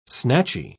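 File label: "snatchy", British English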